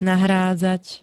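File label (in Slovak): "nahrádzať", Slovak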